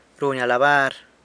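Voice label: "runy alabar", San Lucas Quiaviní Zapotec; praises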